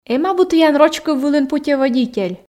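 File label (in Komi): "эм абу тіян роч кыв вылын путеводитель", Komi, female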